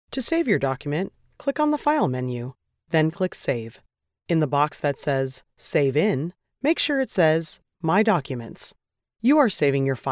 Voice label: Explainer